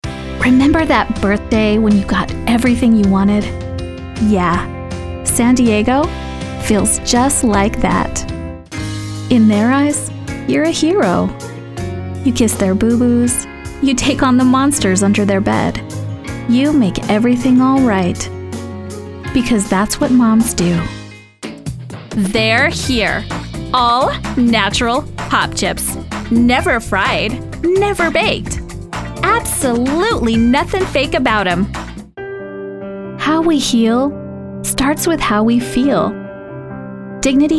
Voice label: Commercial